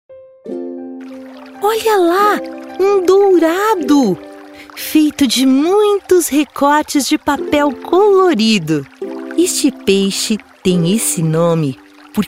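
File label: Brazilian Portuguese, Audiobook; voice demos; GoLocalise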